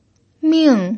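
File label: "ming", pinyin, fourth tone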